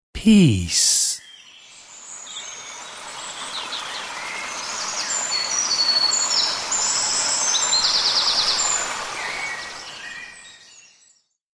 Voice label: Peace Spoken, Male chilled voice saying ‘Peace’ with ambient nature sounds; Recorded Voice